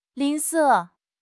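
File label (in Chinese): "吝啬", Chinese; HSK6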